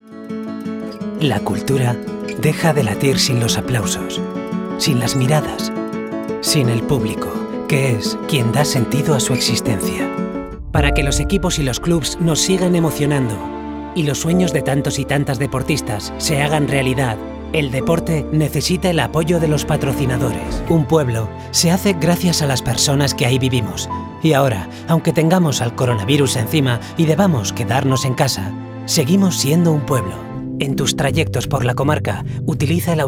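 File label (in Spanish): otros España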